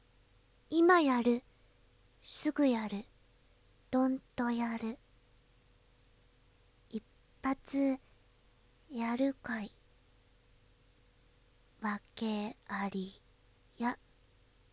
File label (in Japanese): ASMR, タイトルコール; くびわちゃん（首輪ちゃん）; ジト目で爆乳JKうさぎっこ